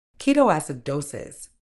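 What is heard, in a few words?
"ketoacidosis", British English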